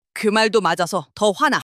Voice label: anger; monologue